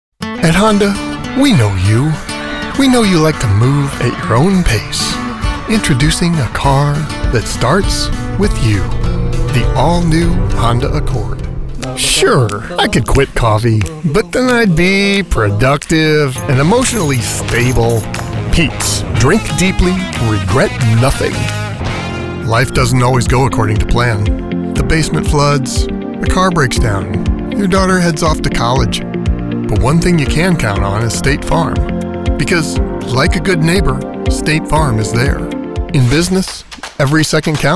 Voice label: commercial, voiceover; Voiceover Artist; Commercial Demo